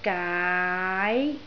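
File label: "gaai2", Cantonese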